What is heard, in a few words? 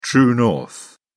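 "true north", British English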